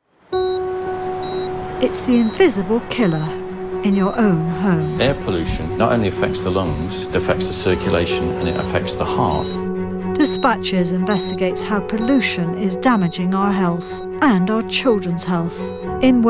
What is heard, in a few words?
current affairs TV